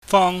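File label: "fong", Cantonese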